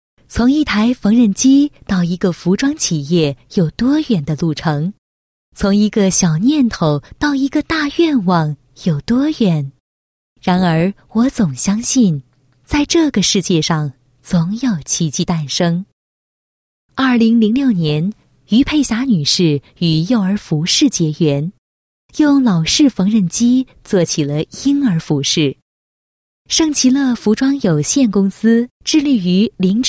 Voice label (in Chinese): 女 服装企业宣传 配音; 圣奇乐服装有限公司